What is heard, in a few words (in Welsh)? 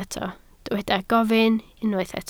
"gofyn", Welsh